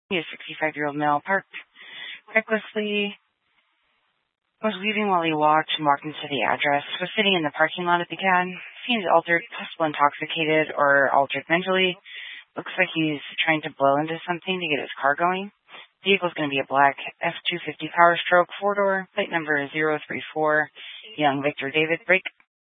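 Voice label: Incident Tac 3, Scanner audio recording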